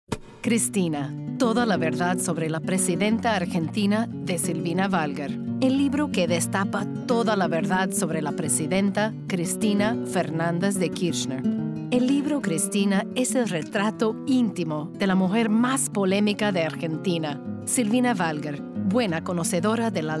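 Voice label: SPA LA Narr Corp Book Review, Spanish Latin American narration corporate book review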